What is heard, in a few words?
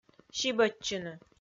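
"сибӧдчыны", Komi, female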